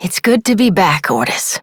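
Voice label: Voice, English; New War; Orbiter; Operator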